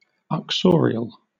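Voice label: "uxorial", British English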